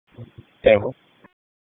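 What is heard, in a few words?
Police Northeast District Disp, radio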